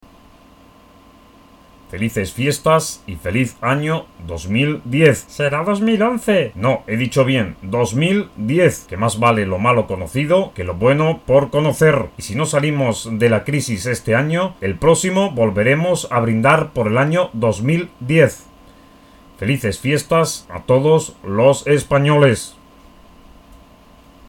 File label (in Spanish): locutor imitador felicitacion nochevieja; Imitación de Zapatero. Discurso de fin de año.